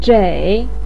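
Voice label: "zhei" third tone, Mandarin Chinese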